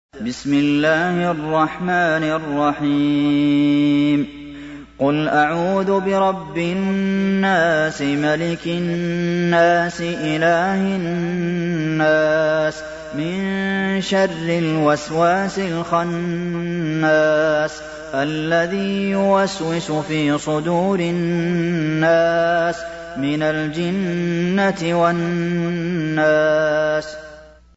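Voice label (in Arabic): الناس, تلاوة; المسجد النبوي; فضيلة الشيخ د. عبدالمحسن بن محمد القاسم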